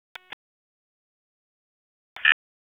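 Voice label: Police Northeast District Dispatch, p25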